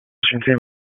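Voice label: Raleigh Police Downtown District Tactical, p25; wake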